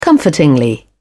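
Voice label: "comfortingly", British English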